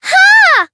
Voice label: Voice damaged; thillelille

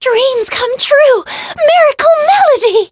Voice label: Voice variable chant

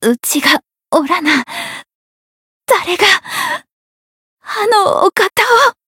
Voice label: Voice retire jp; hoozuki

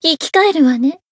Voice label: healed, jp; toova

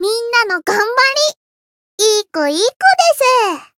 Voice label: win, jp; loveli

